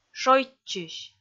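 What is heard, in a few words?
"шойччысь", Komi, female; kpv audio dict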